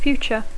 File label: "future", English